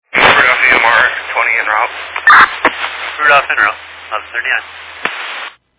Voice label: Fire Dispatch